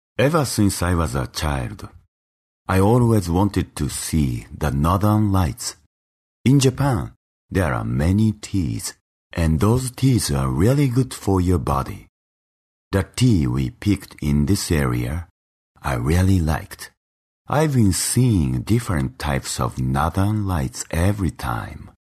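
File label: Japanese, male, English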